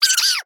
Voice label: fire in the hole fast

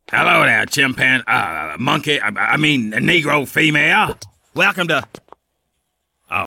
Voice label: female

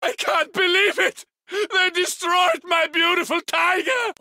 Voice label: funny voice; coh 2

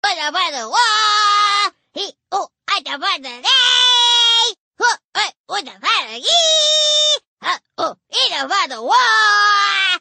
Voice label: voice english; gnar

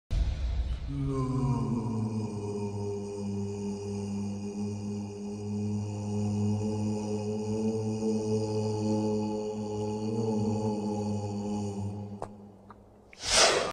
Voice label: slooooooooooooooowpoke

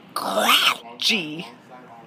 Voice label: grouchy

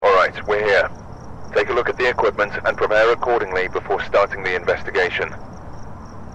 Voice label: radio voice; phasmaphobia

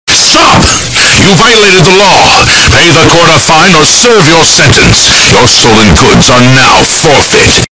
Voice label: earrape; stop youve violated the law

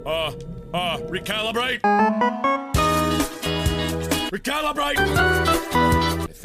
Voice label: whispers; oculus rift horror; bring out yer grudge

